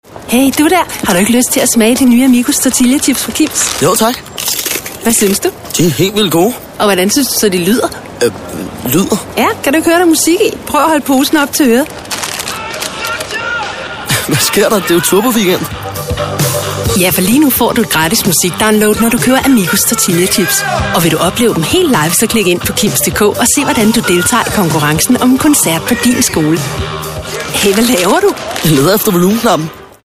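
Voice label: danish female commercial, voice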